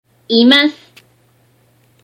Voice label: "います", Japanese